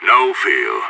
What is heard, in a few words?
pep talk, radio; SAS